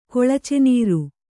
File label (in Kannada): "ಕೊಳಚೆನೀರು", Kannada